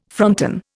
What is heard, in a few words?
"fronton", Indian